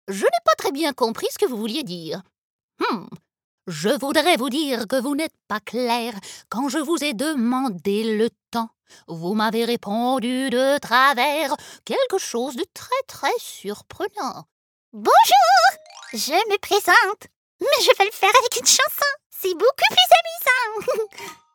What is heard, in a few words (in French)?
ANIMATION